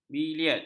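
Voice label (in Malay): "biliard", Malay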